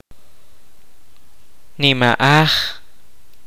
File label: "bamboo", kaqchikel